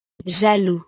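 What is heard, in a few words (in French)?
"jaloux", French; estados animo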